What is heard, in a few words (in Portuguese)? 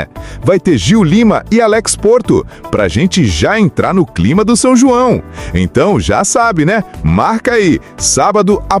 Spot radio CONVITE VILA PARRACHO; nova praça da vila parracho será inaugurada com muito forró e festa